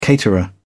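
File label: "caterer", British English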